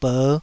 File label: "ber5", taiwanese syllables; 台語音節發音檔案